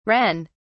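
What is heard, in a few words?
"ren", English, British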